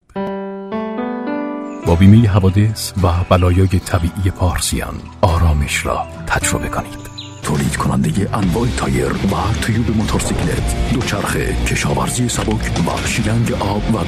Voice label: farsi commercial